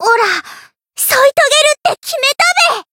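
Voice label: Voice variable chant, Japanese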